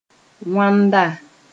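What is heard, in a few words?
"wãnda", Mooré; that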